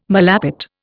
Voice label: "malapert", Indian

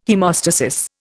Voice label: "haemostasis", Indian

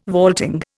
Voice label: "vaulting", Indian

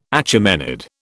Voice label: "Achaemenid", British

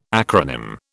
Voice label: "acronym", British

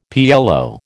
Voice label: "PLO", American

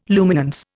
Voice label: "luminance", Indian

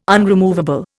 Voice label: "unremovable", Indian

